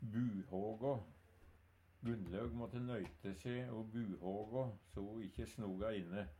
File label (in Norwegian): "buhågå", Numedalsmål